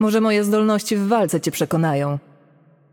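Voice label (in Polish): Intro, Polish; Yunara; bloom minigame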